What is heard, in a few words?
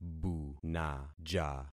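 "bunaja", concatenated syllables; Affixing experiment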